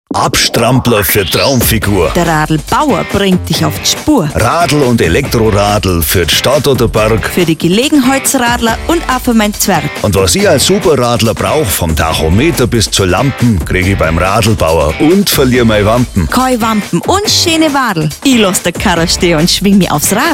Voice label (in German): Werbung German; voice; Radlbauer